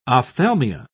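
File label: "ophthalmia", British English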